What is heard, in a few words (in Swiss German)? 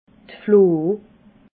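"floh", Alsatian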